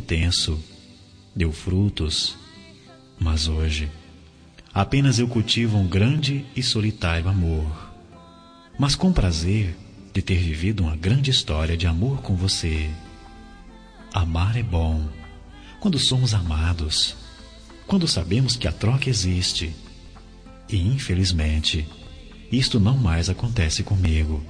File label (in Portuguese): Romântica Com Saudades da Ex, M